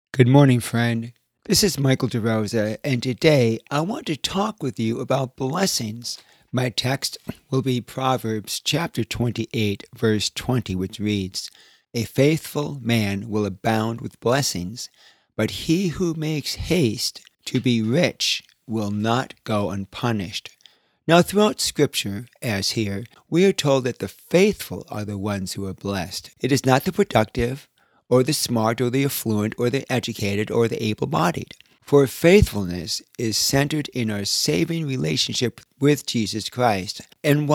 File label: Radio Sermonette; Proverb; Blessings; the faithful will receive blessings